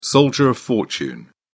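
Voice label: "soldier of fortune", English, British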